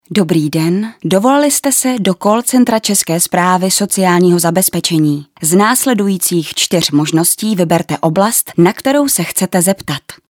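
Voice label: Female Czech IVR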